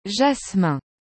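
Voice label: "jasmin", French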